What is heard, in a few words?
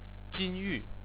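"jin1yu4", Chinese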